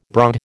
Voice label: "broad", American

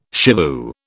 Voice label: "shivoo", British

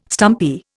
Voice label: "stumpy", Indian

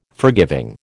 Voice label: "forgiving", American

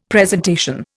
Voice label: "presentation", Indian; Dictionary

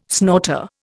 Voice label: "snorter", Indian

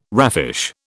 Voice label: "raffish", British

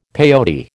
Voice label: "peyote", American

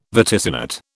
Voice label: "vaticinate", British

